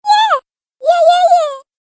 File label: Cartoon Game Voice Yeahs; Cartoon Voice Sound Effects